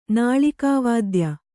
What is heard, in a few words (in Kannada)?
"ನಾಳಿಕಾವಾದ್ಯ", kannada